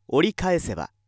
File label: male